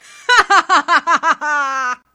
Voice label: femspy rage